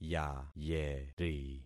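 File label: "yayeri", concatenated syllables; Affixing experiment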